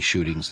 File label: shootings, AE; 'ʃuɾɪŋz